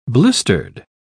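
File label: "blistered", British English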